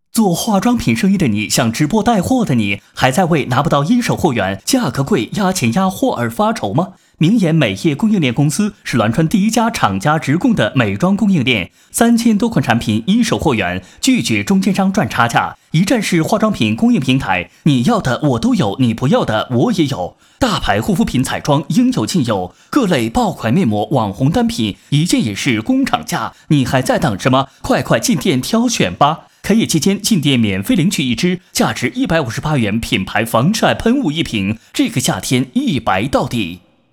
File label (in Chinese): 配音; 明妍美业供应链公司配音极速版